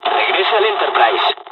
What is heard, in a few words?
evac, dialogs spanish; midway; War Thunder